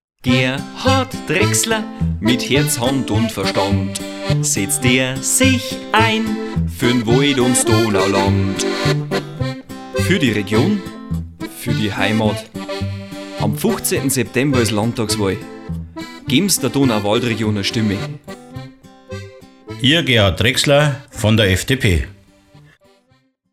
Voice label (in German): Jingle mit Stimme; Drexler Jingle